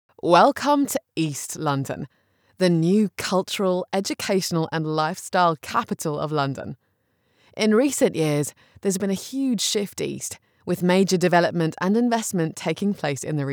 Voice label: english british political ads

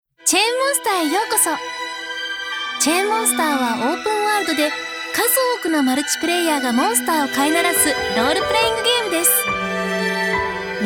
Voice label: japanese animation

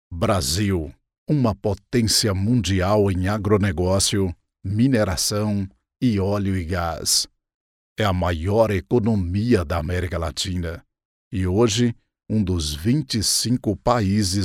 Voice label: portuguese brazilian narration

